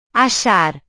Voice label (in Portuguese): "achar", Portuguese; verbos